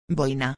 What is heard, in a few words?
"boina", espanhol